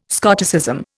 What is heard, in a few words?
"Scotticism", Indian